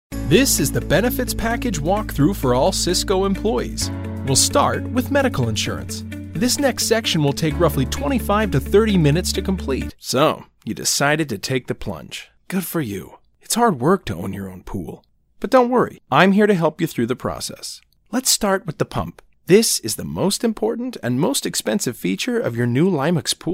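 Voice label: us elearning, American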